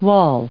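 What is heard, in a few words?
"wall", English, US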